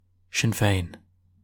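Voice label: "Sinn Féin" Irish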